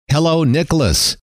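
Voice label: hello, male; trainer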